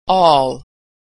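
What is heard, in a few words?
"all", American English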